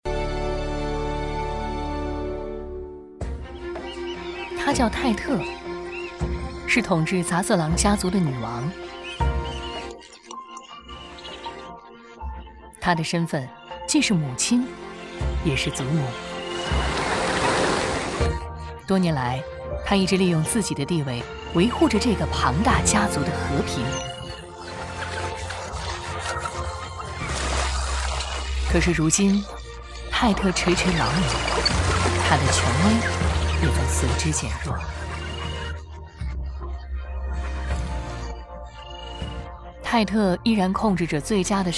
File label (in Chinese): 纪录片自然温暖; 王朝